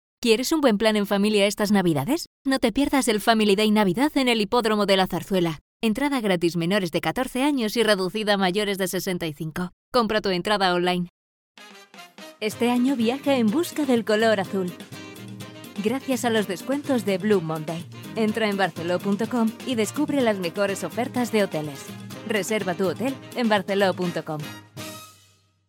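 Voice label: Spanish Spain, female, voice over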